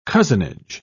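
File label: "cozenage", British English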